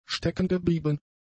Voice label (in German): "steckengeblieben", German; adjectives